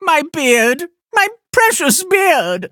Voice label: defeat, en; Cookie Run

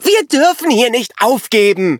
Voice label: defeat, de; Cookie Run

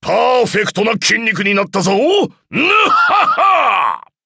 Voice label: upgrade, ja; cookie run

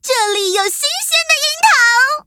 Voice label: touch, zh hant; Cookie Run

